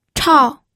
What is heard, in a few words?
"chao4", pinyin